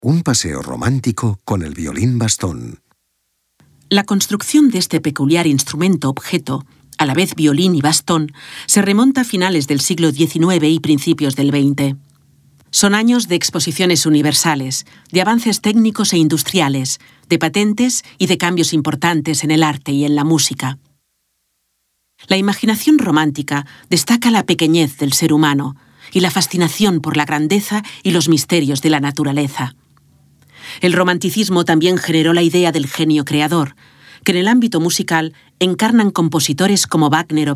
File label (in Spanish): audioguia; delamusica espanyol